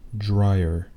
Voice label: "dryer", anglais